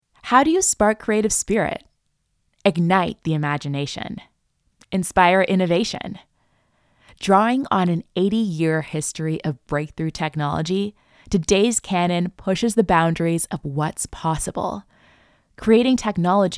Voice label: Home Studio Read